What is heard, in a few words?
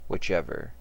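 "whichever" English, US; pronunciations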